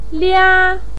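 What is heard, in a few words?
"lia", Mandarin Chinese, first tone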